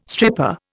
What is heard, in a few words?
"stripper", Indian